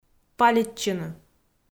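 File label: "палитчыны", Komi, female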